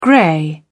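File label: "grey", British English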